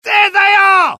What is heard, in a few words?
alert, dialogue UK; mohaa